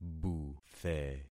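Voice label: "buthe", concatenated syllables; Affixing experiment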